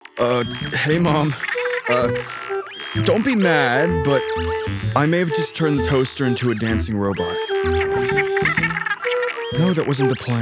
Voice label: Character, en-GB

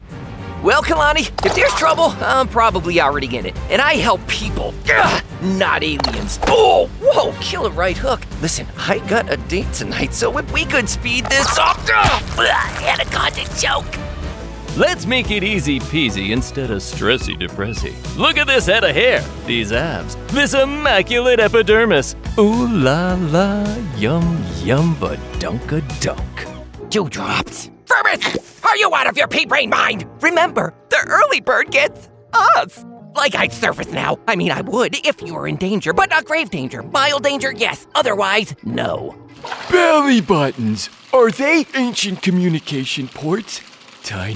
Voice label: Animation

